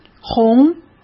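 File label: "红", Mandarin Chinese; hsk; chinese-flashcards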